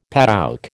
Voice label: "padouk", American

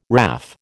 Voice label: "WRAF", American

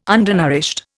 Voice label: "undernourished", Indian

